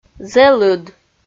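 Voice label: "зэлыд", Komi-Zyrian, female; audio dict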